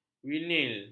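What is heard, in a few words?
"vinil", Malay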